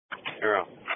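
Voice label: Police Patrol (Dispatch)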